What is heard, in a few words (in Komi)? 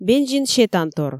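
"бензин сетантор", Komi, female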